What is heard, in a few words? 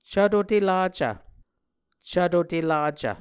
"cvto telacv", muscogee; Mvskoke Words with Voicing Consonants; A Dictionary of Creek/Muskogee; SIL International; Onvkv Ohhvyayices